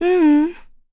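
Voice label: Vox Deny, Korean; Laudia; kingsraid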